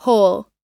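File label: "whole", US English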